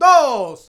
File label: "Dos!", Vocals; Roland BK-5